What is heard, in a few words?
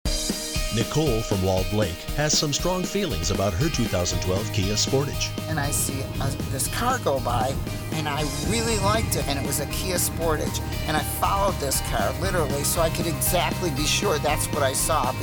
Radio; 2012 KIA Sportage